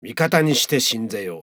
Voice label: japanese Religious; age2

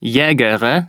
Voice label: german Male villager; age2

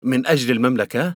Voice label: arabic King; age2

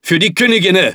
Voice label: german Military; age2

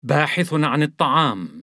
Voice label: arabic male villager; age2

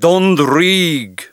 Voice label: Gaelic King; age2

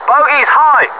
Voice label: BOGIES, British; combatfs

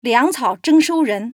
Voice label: chinese Female villager; age2

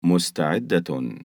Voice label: arabic Religious; age2

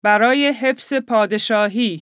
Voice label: farsi Queen; age2

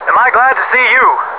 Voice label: JOIN UP, American; combatfs

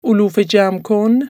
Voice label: farsi Female villager; age2

